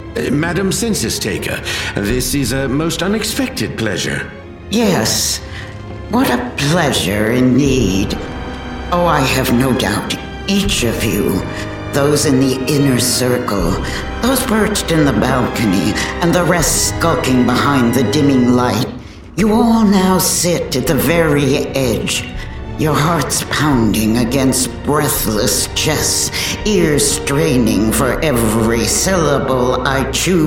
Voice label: Audio Drama